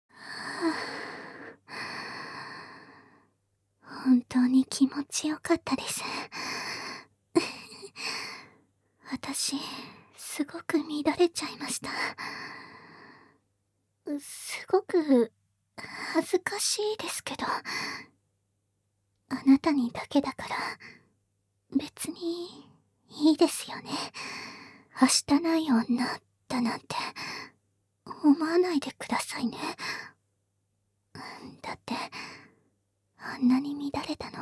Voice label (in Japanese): ピロートーク; 裸で抱き合って; SE無し